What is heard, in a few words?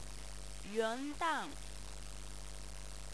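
"yuandan", Chinese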